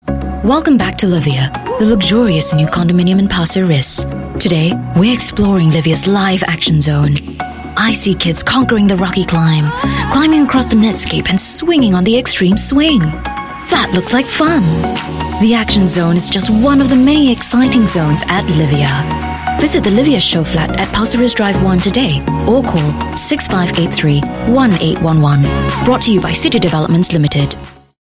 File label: Asian English; CitiDevelopments